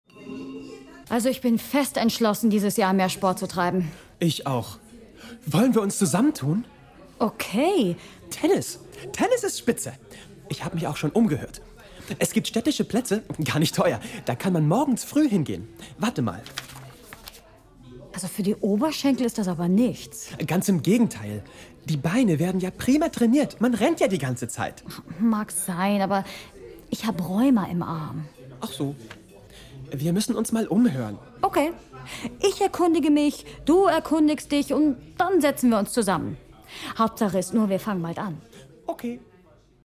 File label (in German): hoerspiel trick dialoge freundinnen im cafe; LOFT VOICES